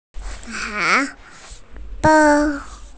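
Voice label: "tái fēng", Mandarin Chinese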